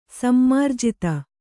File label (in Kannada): "ಸಮ್ಮಾರ್ಜಿತ", Kannada